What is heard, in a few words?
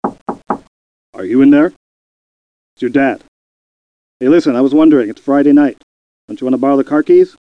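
"out", English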